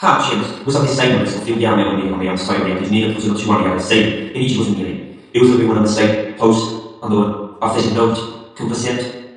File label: gives a speech in cellonian; amyr lanayak